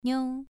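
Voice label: "niu", tone 2, pronunciation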